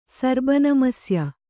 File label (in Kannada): "ಸರ್ಬನಮಸ್ಯ", Kannada